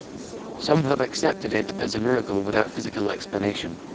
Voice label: noisy speex